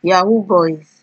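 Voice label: "yahoo boys", Nigerian Pidgin, female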